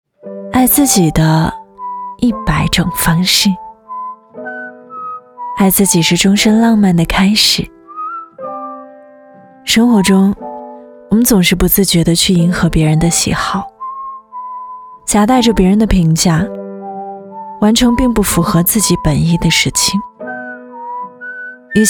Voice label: 广告走心年轻温柔自然; 良品铺子

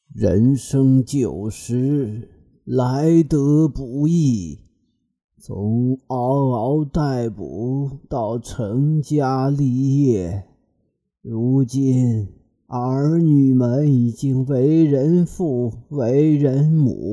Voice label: 老人样音

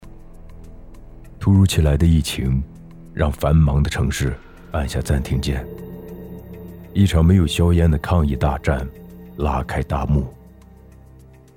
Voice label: 专题激情热血消防

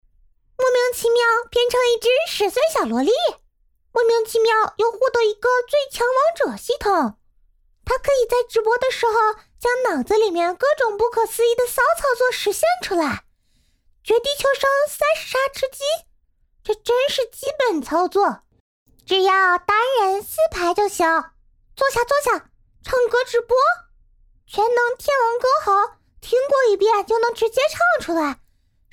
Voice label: 萝莉样音

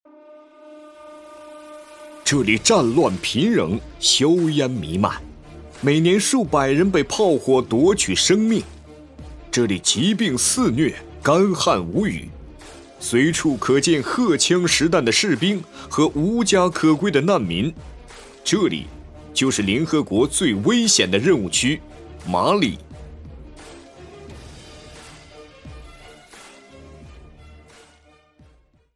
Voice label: 电视军事片花; 马里2

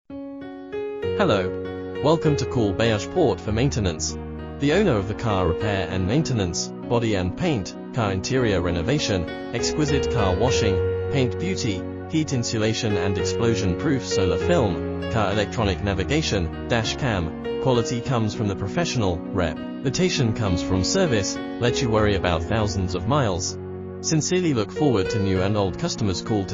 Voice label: 只读英文男

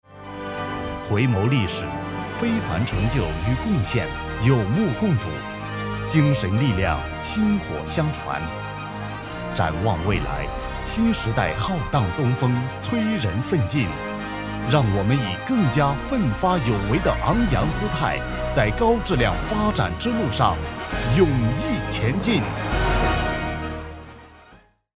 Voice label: 专题党政沉稳大气

